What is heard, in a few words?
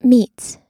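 "meets", American English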